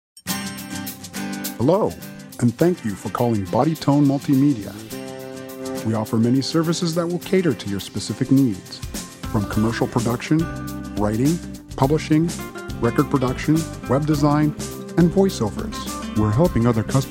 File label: Cell Outgoing message; Body Tone Multimedia